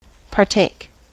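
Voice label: "partake", US English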